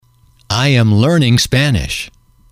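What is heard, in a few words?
i am learning spanish, English, male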